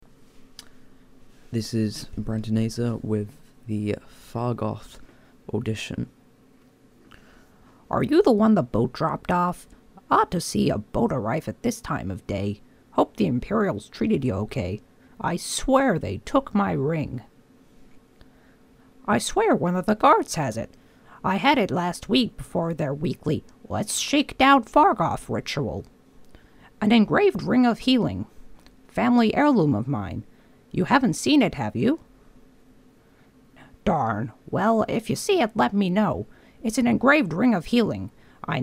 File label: male bosmer whiny annoying, wood elf voice auditions